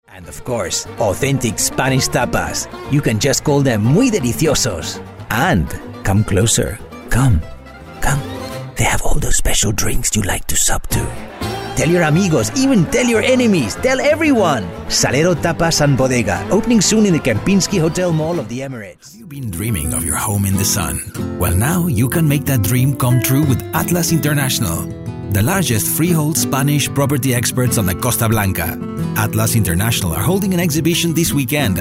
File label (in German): Charge Englisch mit spanischem Akzent